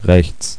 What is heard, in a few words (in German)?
rechts, dolbytest; Amiga-CD - Volume 2